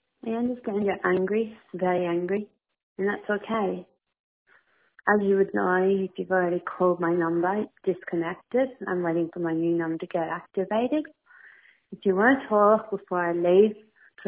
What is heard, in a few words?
voicemail